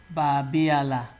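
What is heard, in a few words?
"babiala", Nigerian Pidgin, male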